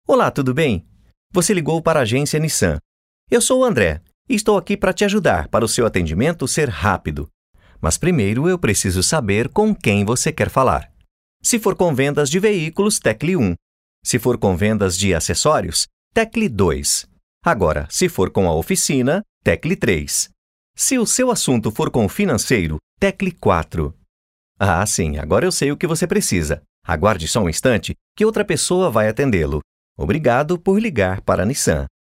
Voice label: portuguese brazilian ivr